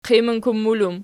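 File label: "qiminkummul" plural, Archi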